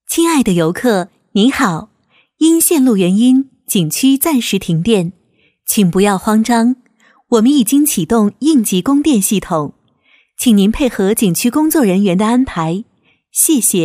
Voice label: narracion chino mandarin

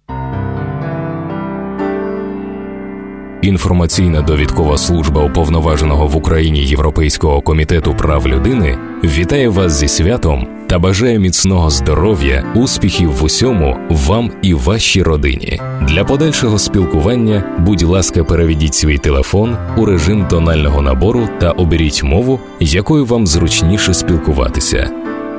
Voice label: comercial ucraniano

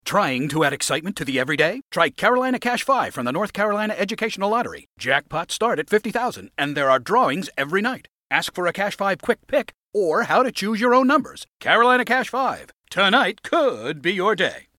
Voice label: narracion inglés USA

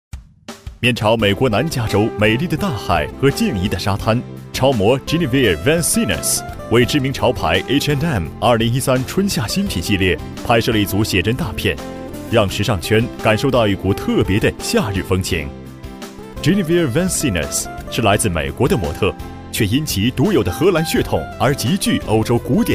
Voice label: narración chino mandarín

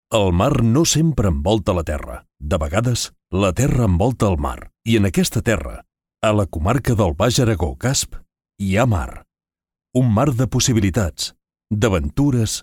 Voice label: narración catalán

